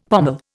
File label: "pommel", Indian; Dictionary